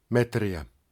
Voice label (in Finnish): Meters, Finnish male; Opas